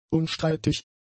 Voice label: "unstreitig", German; adjectives